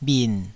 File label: "bhin3", taiwanese